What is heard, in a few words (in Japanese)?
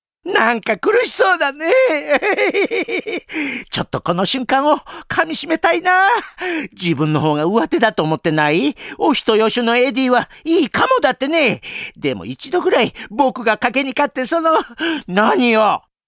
クセ強おじさん